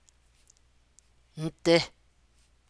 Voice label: "but", Navajo; ndi; Navajo Adverb Lexicon